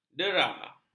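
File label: "dera", Malay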